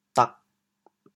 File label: "dag4", chaoyang